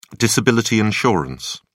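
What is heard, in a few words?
"disability insurance", British English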